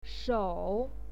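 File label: "shou", Mandarin Chinese, third tone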